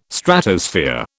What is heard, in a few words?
"Stratosphere", British